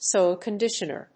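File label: "Soil conditioner", English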